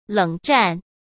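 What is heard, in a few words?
"冷战", Mandarin Chinese; chinese-flashcards; hsk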